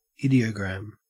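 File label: "ideogram", Australian English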